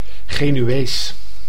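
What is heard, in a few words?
"Genuees", Dutch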